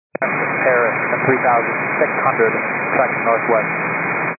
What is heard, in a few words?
122.925 MHz; scanner; radio